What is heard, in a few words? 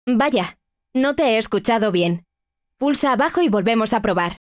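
wake up error, Spanish; Bixby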